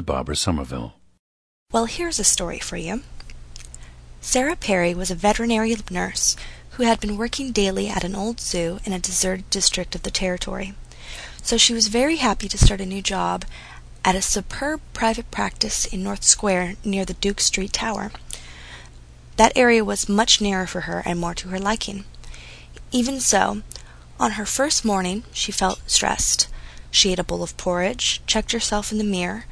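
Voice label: oregon, International Dialects of English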